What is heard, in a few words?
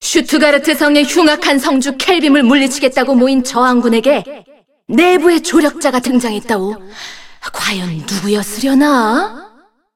cinematic voice; lineage2